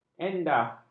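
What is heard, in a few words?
"endah", Malay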